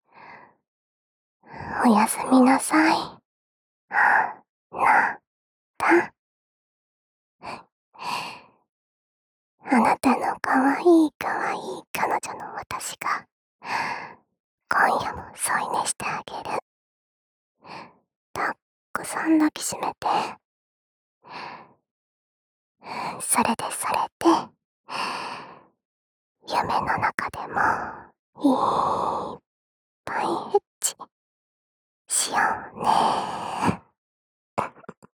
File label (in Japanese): おやすみボイス